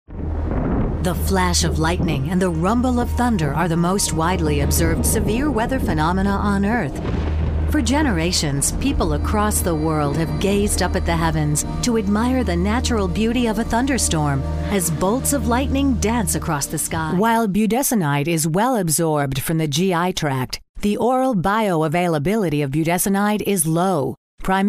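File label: Narration Female, Confident, Warm, Friendly; Narration, Corporate, Documentary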